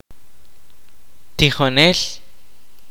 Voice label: "teacher", kaqchikel

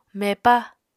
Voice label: "mepa", Apatani

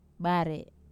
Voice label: "bar", ball, wamesa

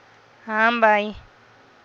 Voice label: "am bay", Teotitlán del Valle Zapotec; all right okay

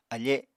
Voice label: "afe", apatani

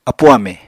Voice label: "apu amè", Apatani

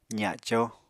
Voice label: "zaco", Apatani

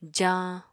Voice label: "jañ", Apatani

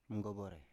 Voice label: "mgovore", Biak

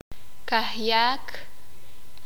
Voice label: sakapulteko; cuatro veces

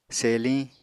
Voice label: "seliñ", Apatani

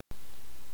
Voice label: kaqchikel; To bless

